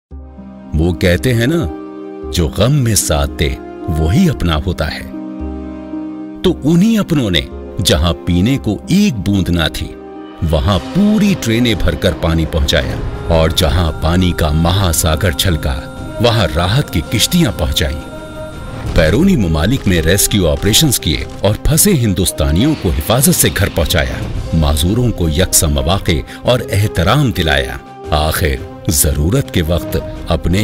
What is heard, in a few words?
TVC Urdu; 04 CHUNAUTI